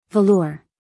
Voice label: "velour", us female

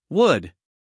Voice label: "wood", US English, male; everyone-can-use-english

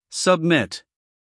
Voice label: "submit", us male

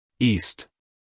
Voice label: "east" us male

